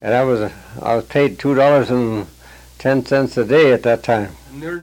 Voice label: Personal history with 87 year old male Chisholm Minnesota, american english dialect